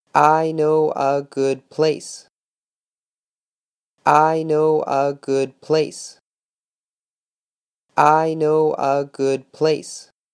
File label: i know a good place slow